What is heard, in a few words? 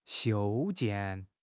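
"修建", Mandarin Chinese; HSK6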